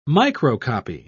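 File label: "microcopy", British English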